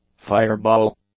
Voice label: "fireball", English; nouns